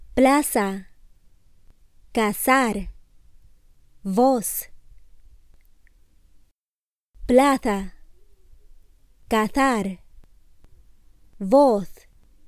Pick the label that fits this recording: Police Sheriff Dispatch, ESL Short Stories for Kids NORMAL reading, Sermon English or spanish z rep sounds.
spanish z rep sounds